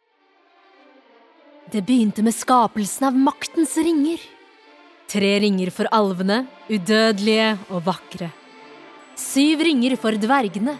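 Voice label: Norwegian movie trailer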